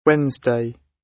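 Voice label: "wednesday", British English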